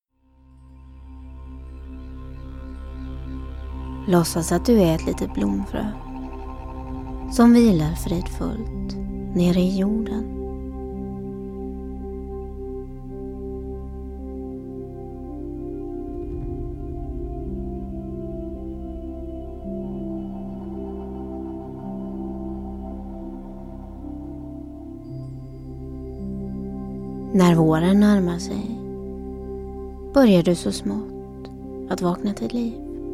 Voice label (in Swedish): Binaural; En blomma få liv; Iso mix